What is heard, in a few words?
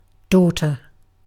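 "daughter", British English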